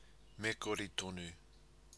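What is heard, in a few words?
"me kori tonu", Maori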